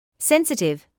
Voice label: "sensitive", English word